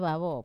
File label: "vavo", top, wamesa